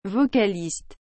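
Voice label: "vocaliste", French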